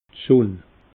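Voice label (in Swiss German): "sunn", Alsatian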